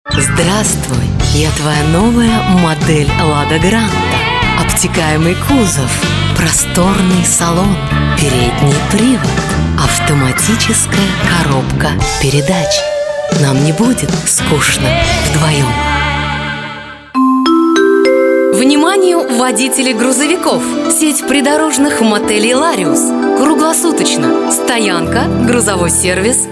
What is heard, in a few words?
Rus